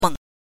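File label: "meng", Mandarin Chinese pinyin, neutral tone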